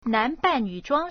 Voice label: "nan2ban4nv3zhang1", Chinese